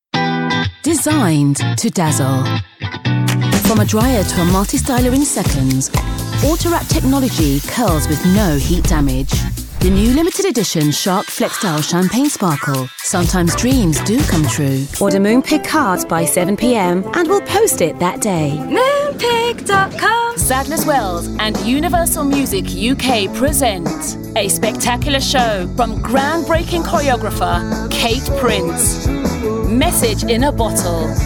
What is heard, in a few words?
commercial, voiceover; commercial reel